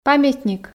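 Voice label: "памятник", Komi, female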